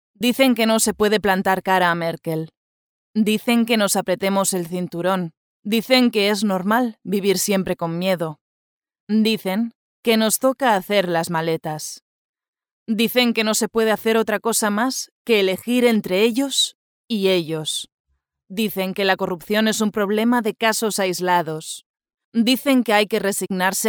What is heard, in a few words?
Politics Spanish